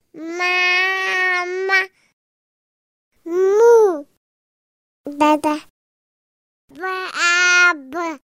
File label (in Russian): Ребенок говорит Мама